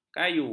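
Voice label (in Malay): "kayu", Malay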